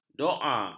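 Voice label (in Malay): "doa", Malay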